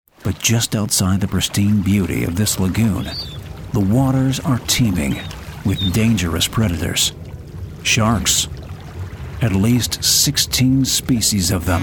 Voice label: Narration

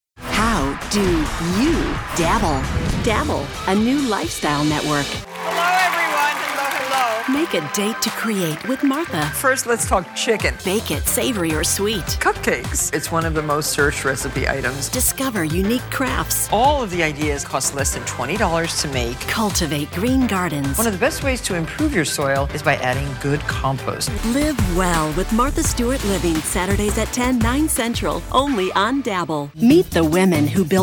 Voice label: promo

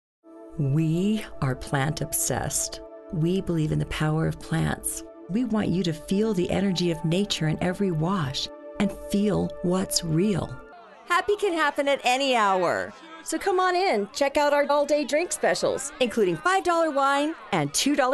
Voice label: Commercial

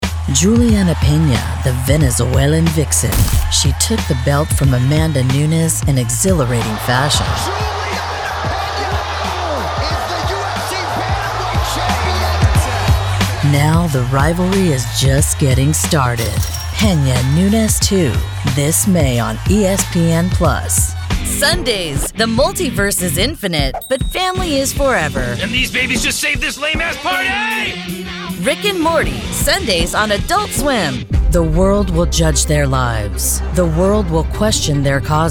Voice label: VO PROMO

